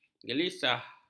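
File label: "gelisah", Malay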